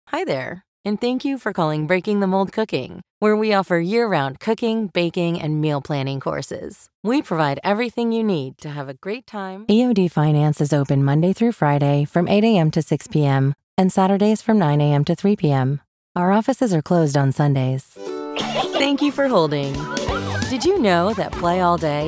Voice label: Telephony IVR